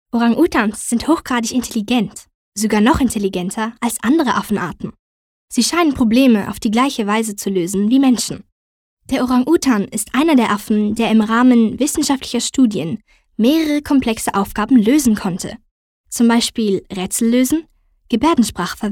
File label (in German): Kommentar